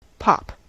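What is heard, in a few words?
"pop", US English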